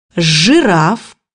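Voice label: "giraffe", Russian; bukvar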